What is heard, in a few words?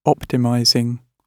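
"optimizing", British English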